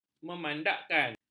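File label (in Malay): "memandakkan", Malay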